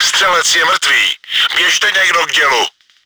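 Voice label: gunner killed, чешской озвучки; Střelec je mrtvý, běžte někdo k dělu!; Czech; Наводчик убит, бегите кто-нибудь к орудию!; World of Tanks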